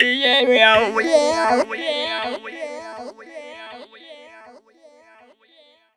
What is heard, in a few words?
FX TALK, Funky Vocals; USB Soundscan vol.18; AKAI